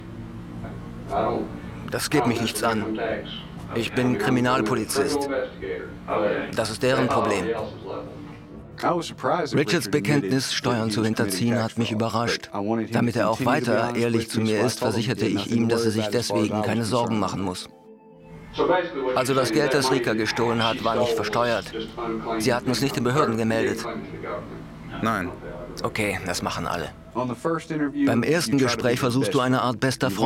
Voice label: German; String of Lies

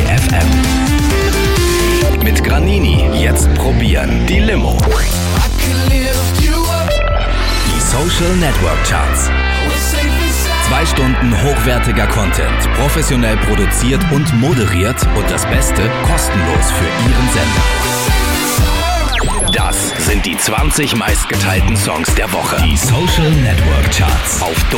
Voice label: Station Voice

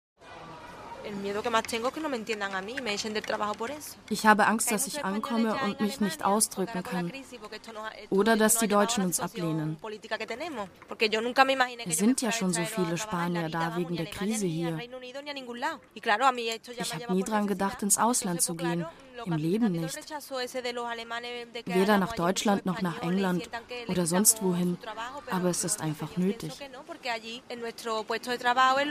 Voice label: Voiceover; SWR Tandem

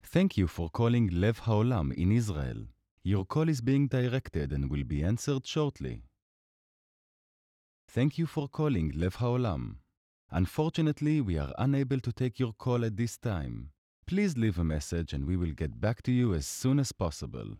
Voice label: ENG IVR

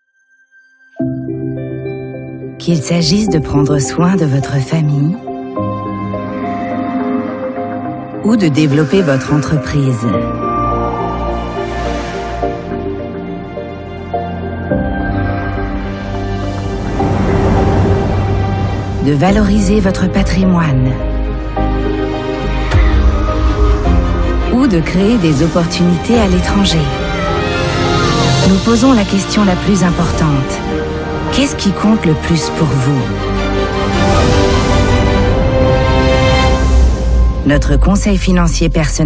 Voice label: french; Julius-Baer

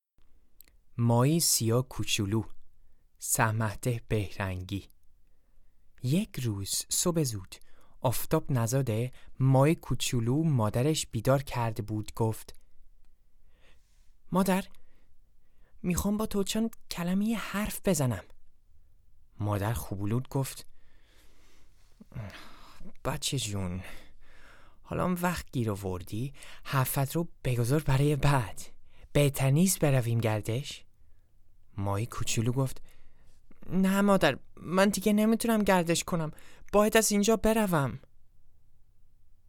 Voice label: Farsi Narration

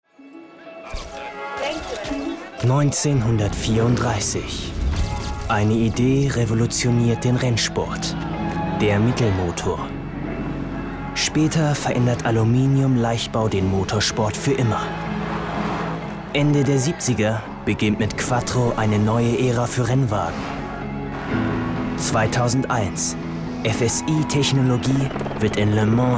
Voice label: COMMERCIAL OFF; AUDI R8

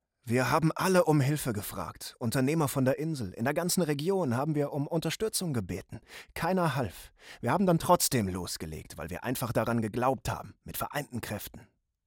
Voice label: Voice Over; arte

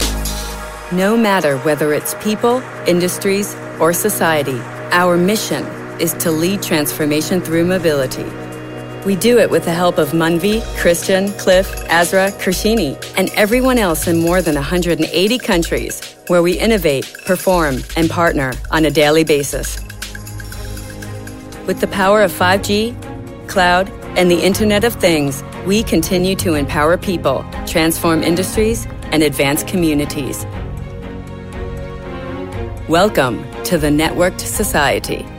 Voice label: Narration

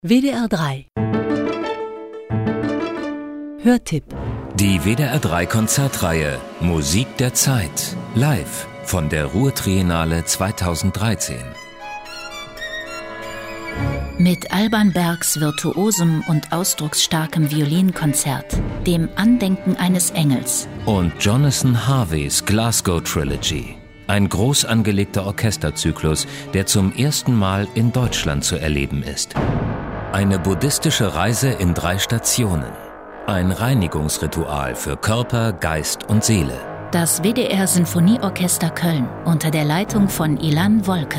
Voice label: Trailer; WDR3

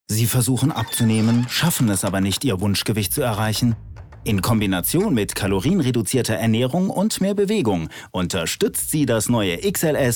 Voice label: TV Spot; Medical Max Strength

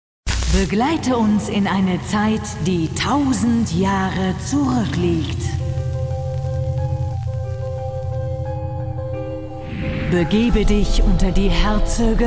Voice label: Trailer

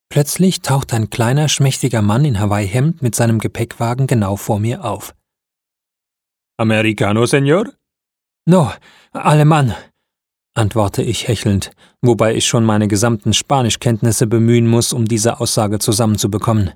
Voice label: Audiobook; Oh wie schoen ist Caracas

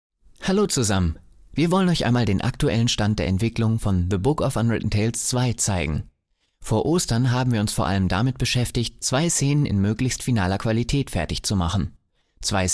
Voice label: Voice Over

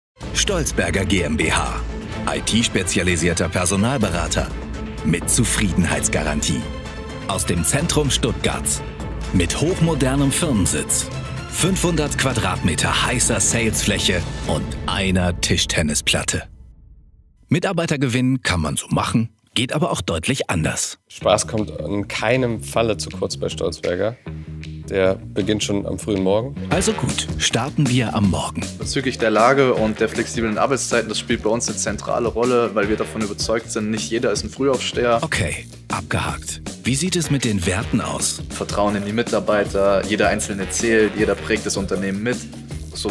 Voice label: Recruiting Film; Stolzberger

